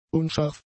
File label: "unscharf", German; adjectives